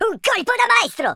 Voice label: excellent, Italian